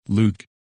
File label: "Luke", men names